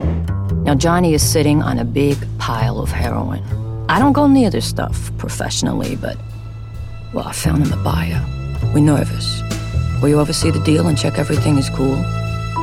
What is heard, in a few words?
American